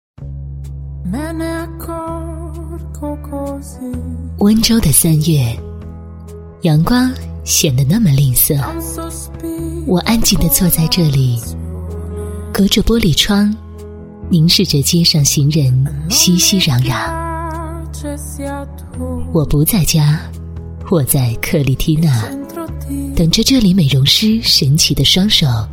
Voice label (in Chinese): 国语女声配音，优雅成熟; 克丽缇娜